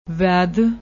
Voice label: "vaid", Punjabi; ਵੈਦ

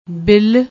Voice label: "bill", Punjabi

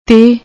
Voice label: "tehh", Punjabi

